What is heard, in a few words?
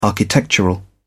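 "architectural", English, British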